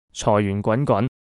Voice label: 财源滚滚, Cantonese; Cantonese: 財源滾滾

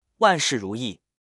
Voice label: 万事如意, Mandarin

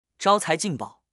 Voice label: 招财进宝, Mandarin

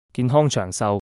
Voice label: 健康长寿, Cantonese; Cantonese: 健康長壽

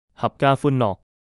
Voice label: 合家欢乐, Cantonese; Cantonese: 合家歡樂

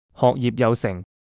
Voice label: 学业有成, Cantonese; Cantonese: 學業有成

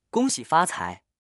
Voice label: 恭喜发财, Mandarin

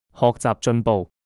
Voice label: 学习进步, Cantonese; Cantonese: 學習進步

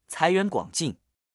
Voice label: 财源广进, Mandarin

